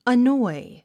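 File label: "annoy", English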